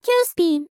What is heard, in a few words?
"qspin", vocal; miku